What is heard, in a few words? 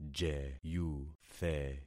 "jeyuthe", concat syllables; Affixing experiment